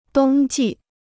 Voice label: "冬季", Chinese

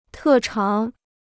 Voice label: "特长", Chinese

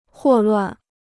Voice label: "霍乱", Chinese

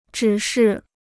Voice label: "指示", Chinese

